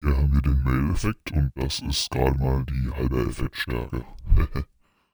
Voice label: tascam ministudio creator us-42 male